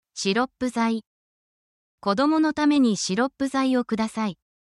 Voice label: essential japanese for your health talking about medicine